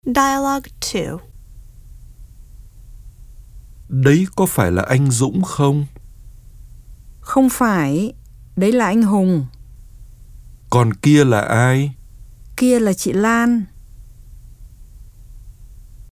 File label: Dialog